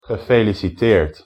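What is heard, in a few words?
"gefeliciteerd", Dutch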